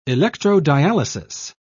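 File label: "electrodialysis", British English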